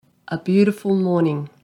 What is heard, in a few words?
A beautiful morning, en au; countryside